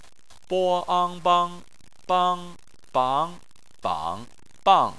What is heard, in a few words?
"bang" Chinese